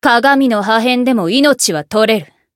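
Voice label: variable chant, Japanese; isuka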